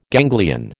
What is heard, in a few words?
"ganglion", American